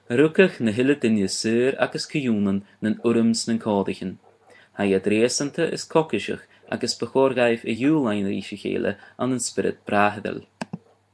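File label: udhr ga, Irish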